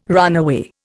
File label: "runaway", Indian